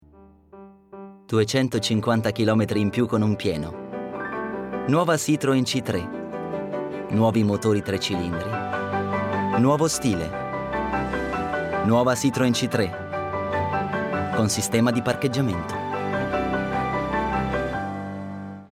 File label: male italian commercial; citroen c3